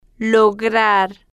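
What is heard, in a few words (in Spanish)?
"lograr", Spanish, female, slow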